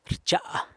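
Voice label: "rcha", warms, San Lucas Quiaviní Zapotec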